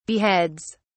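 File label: "beheads", en, uk British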